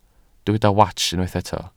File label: "wats", Welsh